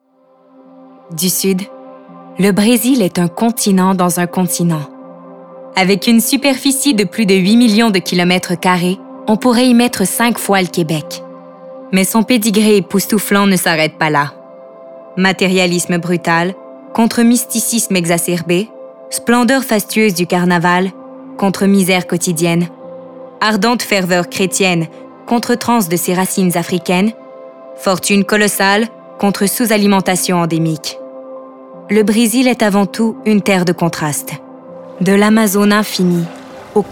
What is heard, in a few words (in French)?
narration